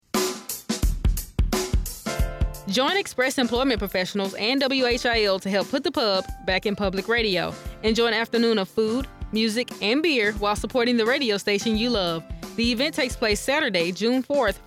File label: promo; National Public Radio